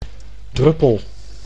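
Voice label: "druppel", Dutch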